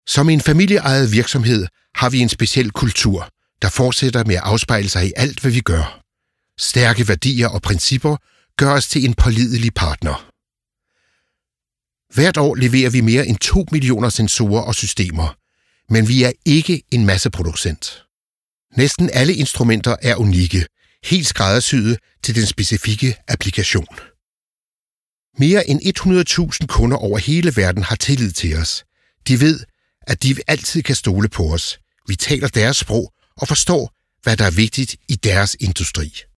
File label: Corporate